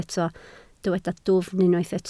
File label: "dwfn", Welsh